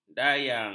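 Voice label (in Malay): "dayang", Malay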